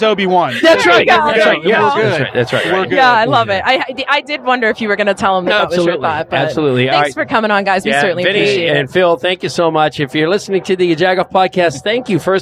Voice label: DEREVERB CORRECTION Podcast; YaJagoff